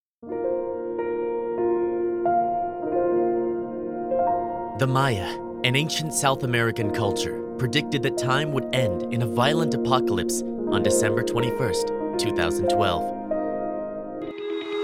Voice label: Narration; Narration Demo